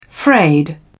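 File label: "frayed", British English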